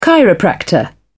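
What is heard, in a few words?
"chiropractor", English, British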